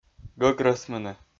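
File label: "гӧгрӧсмыны", Komi, male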